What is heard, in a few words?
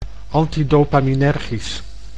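"antidopaminergisch", Dutch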